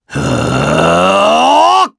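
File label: Vox Casting, Japanese; voices; heroes; Roman; kingsraid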